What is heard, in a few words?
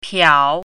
"piao3", Chinese pinyin